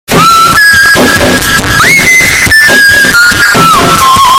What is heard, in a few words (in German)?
loud af